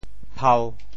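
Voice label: "phau1", Teochew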